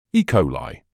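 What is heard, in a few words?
"E-coli", British English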